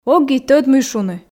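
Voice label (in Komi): ог и тӧд мый шуны, Komi, female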